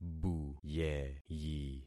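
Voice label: "buyeyi", concatenated syllables; Affixing experiment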